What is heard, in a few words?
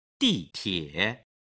"di tie", Chinese